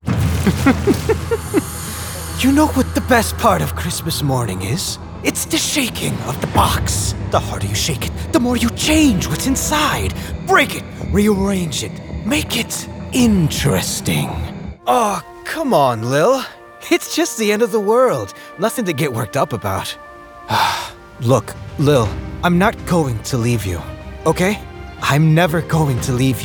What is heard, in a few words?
Character